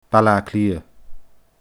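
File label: "Baile Àtha Cliath", Scottish Gaelic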